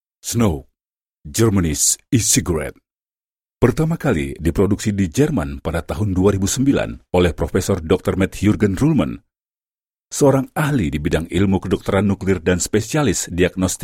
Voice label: Male Indonesian